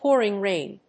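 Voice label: "pouring rain", English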